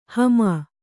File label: "ಹಮಾ", Kannada